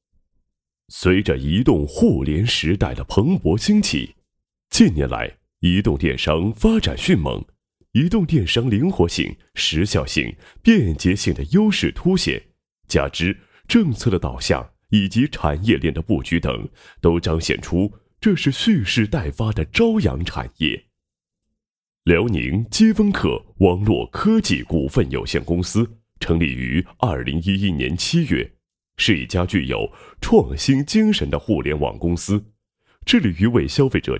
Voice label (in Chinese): 男 专题片 专题片配音